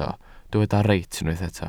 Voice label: "reit", Welsh

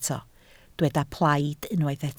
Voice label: "plaid", Welsh

